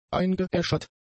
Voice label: "eingeäschert", German; adjectives